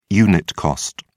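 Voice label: "unit cost", British English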